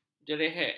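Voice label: "jerehek", Malay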